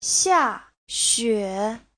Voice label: "xia4 xue3", Chinese; 下雪 : tuyết rơi